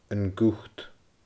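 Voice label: "An Gùt", Scottish Gaelic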